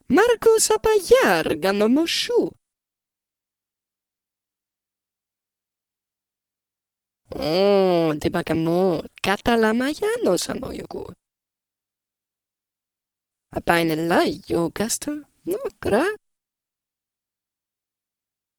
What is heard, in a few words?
talk Flirt; Pacifica